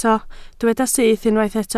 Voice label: "syth", Welsh